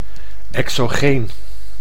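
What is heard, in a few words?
"exogeen", Dutch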